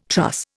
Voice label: "truss", Indian